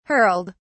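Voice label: "hurled", English, British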